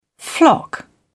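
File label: "flock", British English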